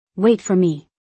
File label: Wait for me.-English; Time and Routine